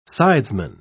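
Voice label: "sidesman", British English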